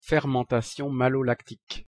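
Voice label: pronunciation french fermentation malolactique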